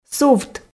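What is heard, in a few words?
"сувт", Komi, female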